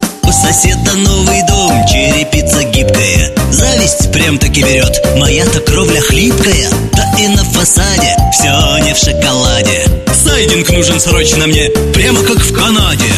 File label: russian